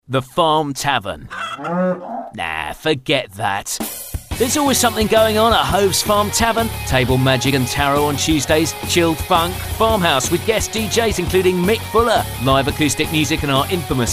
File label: radio advert; juice farm tavern